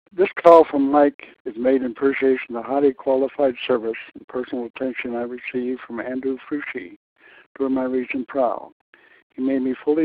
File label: Testimonial